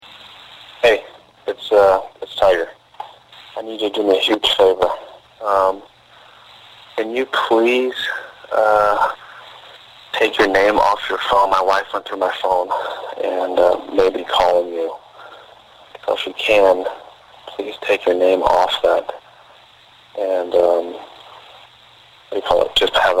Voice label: Voice Mail